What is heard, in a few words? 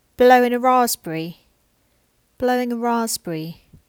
Cockney